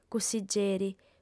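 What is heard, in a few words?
"cussigieri", Sardinian, female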